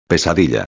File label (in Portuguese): "pesadilla", espanhol